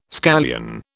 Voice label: "scallion", British